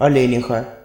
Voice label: doe desc ru; mammals; deer; tuxpaint-stamps

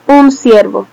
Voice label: deer desc es; mammals; tuxpaint-stamps

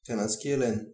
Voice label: wapiti desc, bg; mammals; deer; tuxpaint-stamps

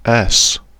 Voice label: "s" filled, English GB; tuxpaint-stamps; symbols; alphabets; lowercase

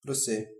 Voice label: pig, bg; tuxpaint-stamps; animals; mammals

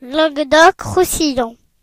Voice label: "languedoc roussillon", voices fr; france region; gcompris

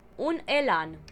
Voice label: wapiti desc ro; mammals; deer; tuxpaint-stamps

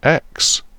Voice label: "x" filled, English GB; tuxpaint-stamps; symbols; alphabets; lowercase

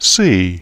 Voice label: "c" filled, English GB; tuxpaint-stamps; symbols; alphabets; lowercase

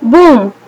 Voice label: explosion desc, es; naturalforces; tuxpaint-stamps

